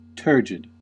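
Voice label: "turgid", American English; pronunciations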